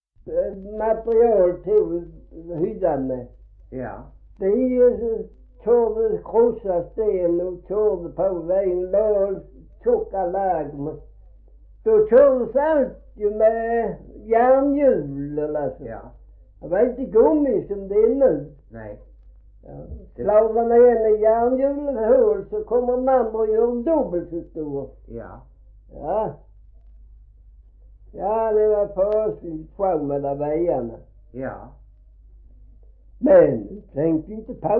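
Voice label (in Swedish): Gråmanstorp Skåne, svenska sagor dialekter; Folkminnen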